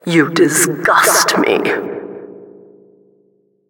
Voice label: announcer last man forfeit

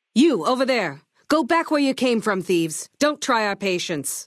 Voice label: female adult

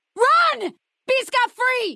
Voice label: female adult default dialogue greeting; Fnv

